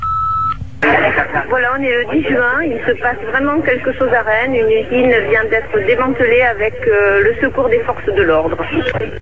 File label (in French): repondeur; Fermeture usine Rennes